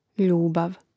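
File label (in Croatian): "ljubav", Croatian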